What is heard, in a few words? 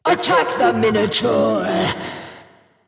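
attack, male; smite; bellona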